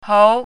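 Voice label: "hou2", Mandarin Chinese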